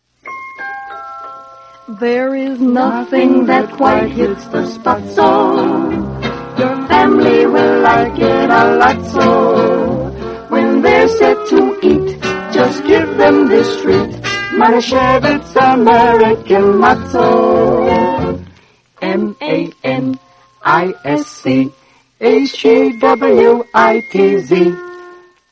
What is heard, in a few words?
yiddish radio matzo commercial; manischewitz; the yiddish radio project